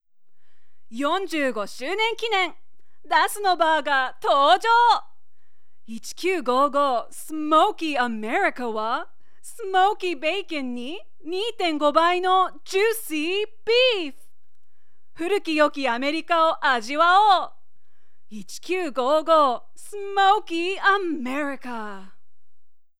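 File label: Narration, Japanese English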